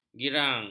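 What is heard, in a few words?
"girang", Malay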